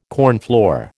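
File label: "cornflour", American English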